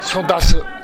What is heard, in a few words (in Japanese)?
"sodasu", minami yamagata